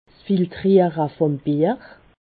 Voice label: "filtriere", alsace

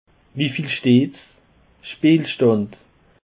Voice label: "speelstand", Alsace

